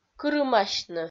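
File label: "кырымасьны", Komi-Zyrian, female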